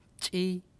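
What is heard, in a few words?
"chi", syllable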